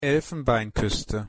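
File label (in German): "elfenbeinkuste", German; pronunciation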